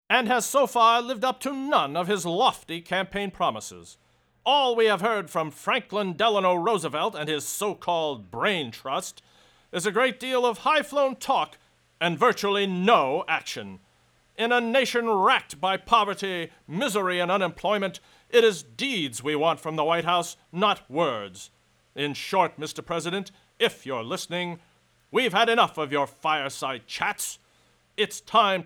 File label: newscaster; sound effects and sound reinforcement